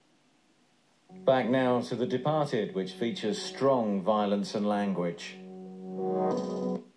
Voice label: continuity announcer sounds quite disapproving of the content